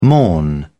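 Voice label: "morn", British English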